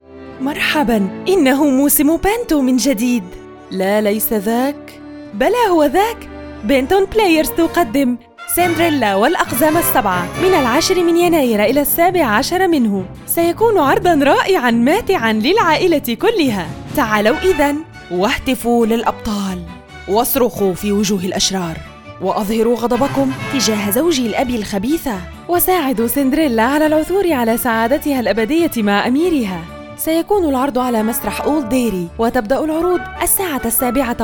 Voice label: Radio